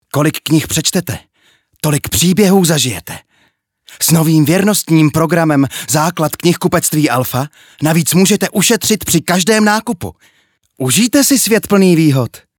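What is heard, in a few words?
Czech, male, commercial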